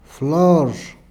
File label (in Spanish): "flor", Peru Cuzco